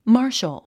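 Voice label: "martial", English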